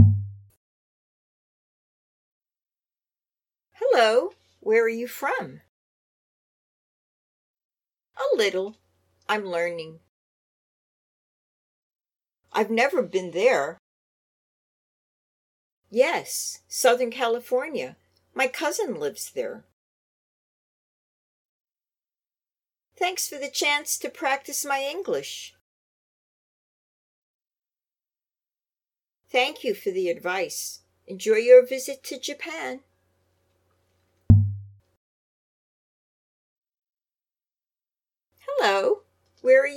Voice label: Natural Dialog B Part